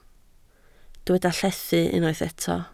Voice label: "llethu", Welsh